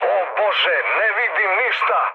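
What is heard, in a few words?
Serbian searchlite mood med; War Thunder; speech srb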